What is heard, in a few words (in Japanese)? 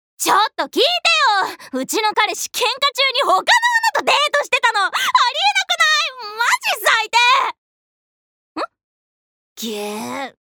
アニメ